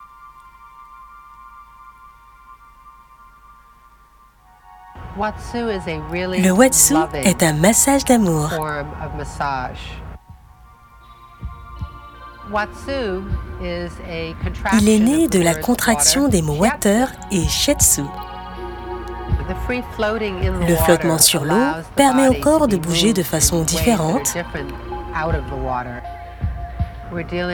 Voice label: VOICE OVER; LE WATSU